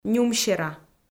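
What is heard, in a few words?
"нюмсера", Komi, female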